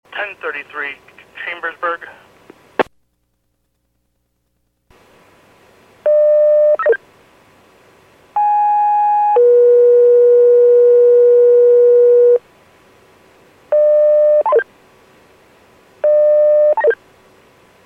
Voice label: PAGING